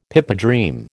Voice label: "pipedream", American

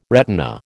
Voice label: "retina", American

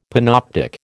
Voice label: "panoptic", American

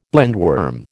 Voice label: "blindworm", American

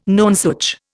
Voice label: "nonsuch", Indian

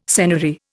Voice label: "senary", Indian

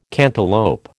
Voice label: "cantaloup", American

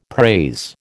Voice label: "praise", American

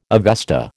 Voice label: "Avesta", American

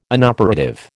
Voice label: "inoperative", American

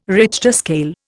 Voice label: "Richter scale", Indian

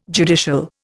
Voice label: "judicial", Indian

